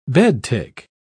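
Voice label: "bedtick", English, British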